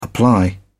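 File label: "apply", British English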